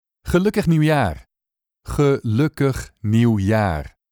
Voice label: dutch happy new years